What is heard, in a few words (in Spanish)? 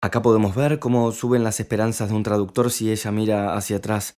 Linea, Espanol